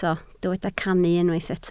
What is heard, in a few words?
"cannu", Welsh